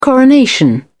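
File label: "coronation", British English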